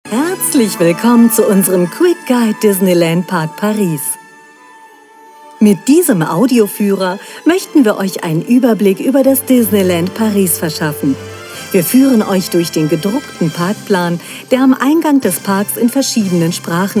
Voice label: Audioguide

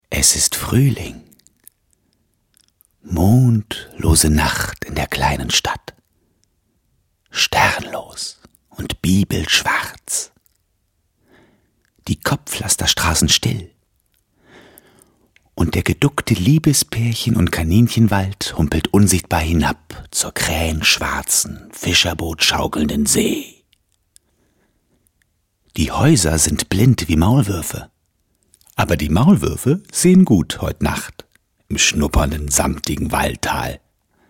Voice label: de hoerbuch, deutsch maenner; sprecher

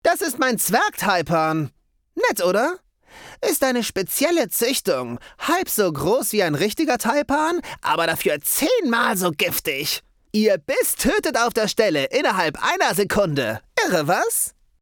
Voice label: game, deutsch maenner; sprecher

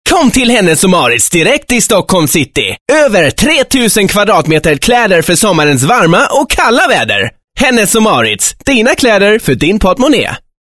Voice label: schwedisch werbung

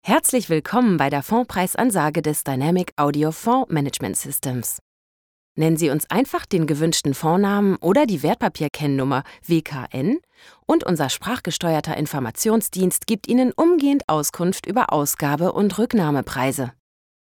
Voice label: deutsch frauen telefon

